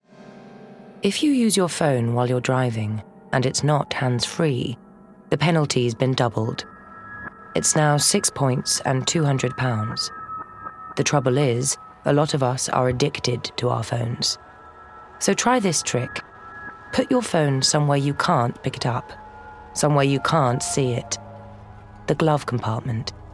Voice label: Commercial; THINK

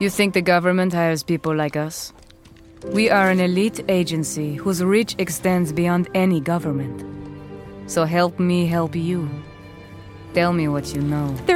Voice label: Eastern European

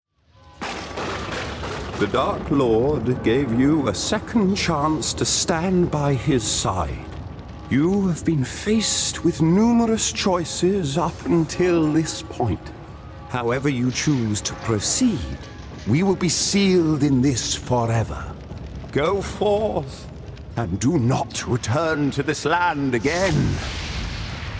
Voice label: Character Wizard

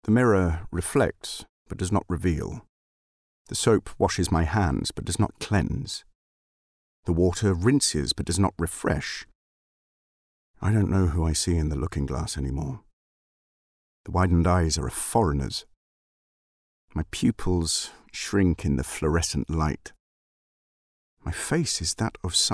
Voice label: Narration; Stranger in The Mirror

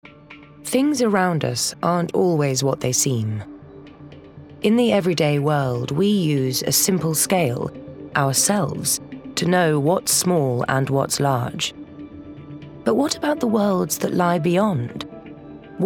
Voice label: documentary reel

